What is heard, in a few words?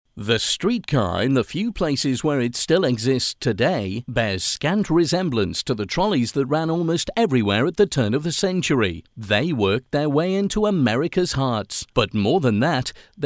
Narration